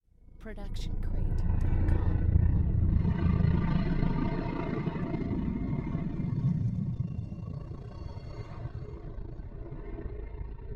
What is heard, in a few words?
alien broken voice